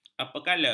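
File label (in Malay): "apakala", Malay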